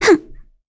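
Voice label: Vox Landing, voices heroes English; Lavril; kingsraid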